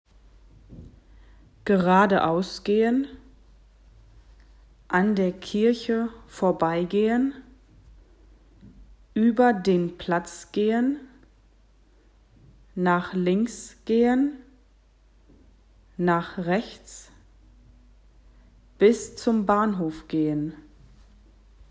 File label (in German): blaue piste vokabeln langsam